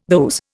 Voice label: "those", Indian